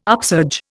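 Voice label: "upsurge", Indian English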